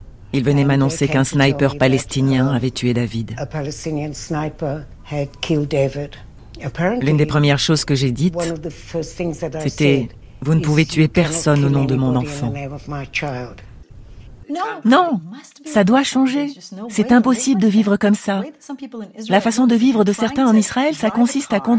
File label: Voice over diverses femmes